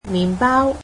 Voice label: "bread", cantonese

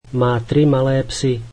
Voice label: slovak she has three small dogs

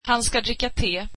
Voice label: swedish he will drink tea

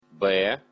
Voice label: belarusian alphabet b

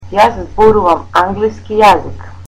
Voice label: I speak English, macedonian

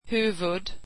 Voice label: swedish head

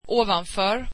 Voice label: swedish above

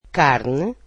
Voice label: portuguese meat

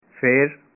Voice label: "Then", punjabi

